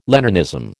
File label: "Leninism", American